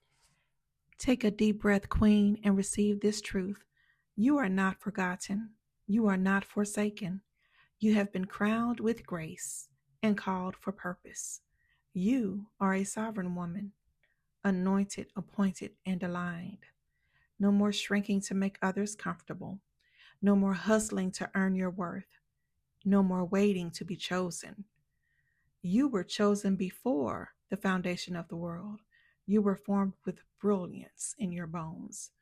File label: Audio Affirmation; Crowned & Called